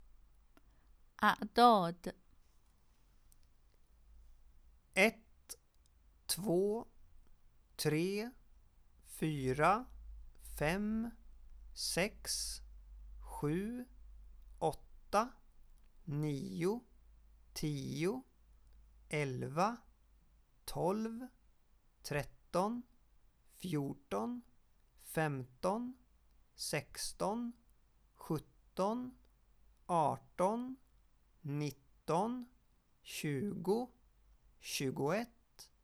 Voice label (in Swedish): inledning, svenskabok